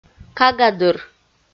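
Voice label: "кага дыр" Komi, female; kpv audio dict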